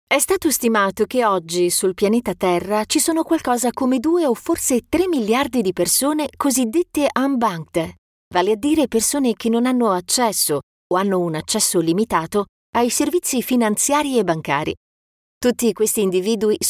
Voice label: Italian female, audiobook